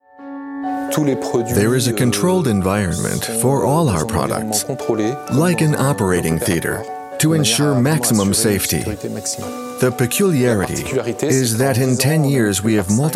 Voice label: voice over; Vivacy